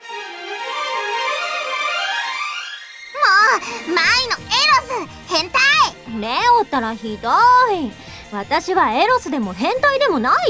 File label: Voice Drama